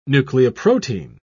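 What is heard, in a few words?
"nucleoprotein", British English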